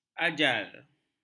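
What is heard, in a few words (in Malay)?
"ajal", Malay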